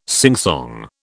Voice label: "singsong", British